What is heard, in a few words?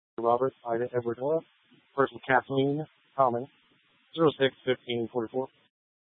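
Incident Tactical, scanner audio recording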